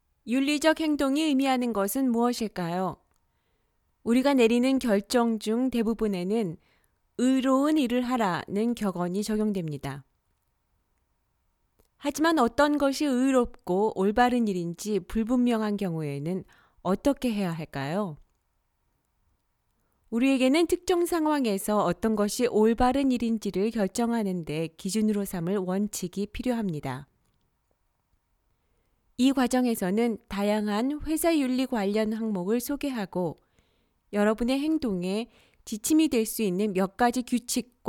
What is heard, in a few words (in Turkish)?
Korece Kadin, seslendirme; kadin sesler